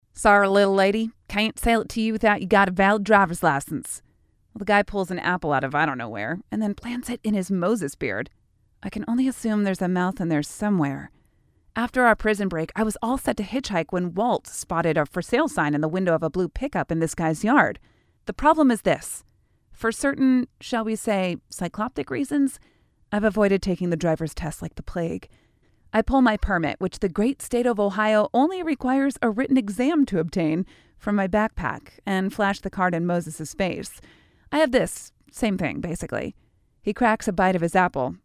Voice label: Audio Book Fiction